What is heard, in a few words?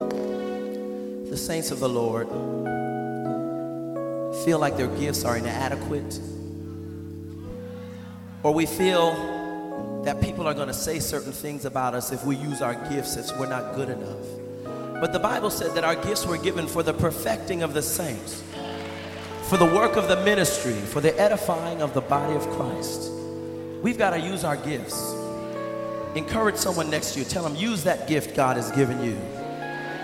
Narration